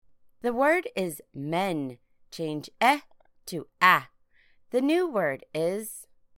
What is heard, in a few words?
men, manipulating medial sounds